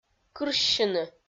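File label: "кырссьыны", Komi-Zyrian, female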